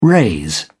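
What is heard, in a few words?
"raise", British English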